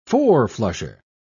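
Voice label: "four-flusher", English, British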